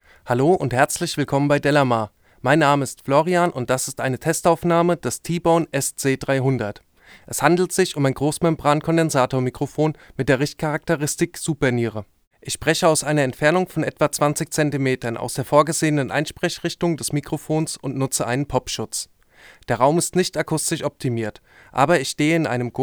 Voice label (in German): sprache m; t.bone sc 300